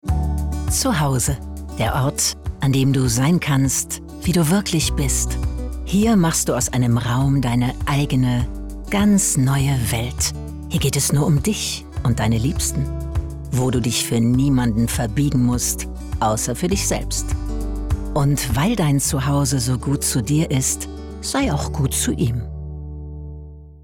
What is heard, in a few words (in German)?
Werbung Moebel, Commercial (Werbung), German; voice